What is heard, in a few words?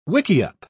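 "wickiup", British English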